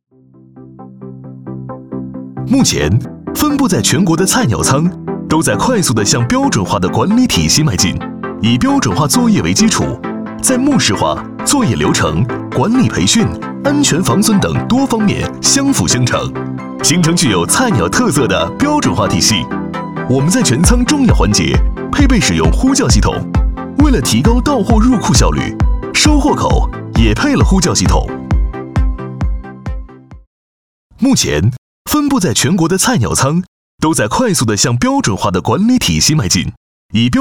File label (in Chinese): 男 菜鸟仓（动感）